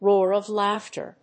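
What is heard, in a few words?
"roar of laughter", English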